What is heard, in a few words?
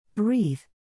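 "breathe", English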